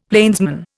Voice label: "plainsman", Indian; Dictionary